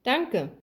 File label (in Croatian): njemački; POP UP Zagreb